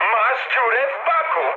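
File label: damaged oil, mood med, Polish speech; War Thunder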